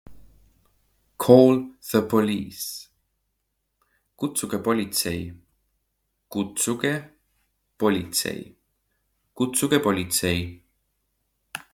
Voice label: Call the police, Estonian; the talking estonian phrasebook